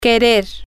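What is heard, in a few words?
to Want, Spanish, female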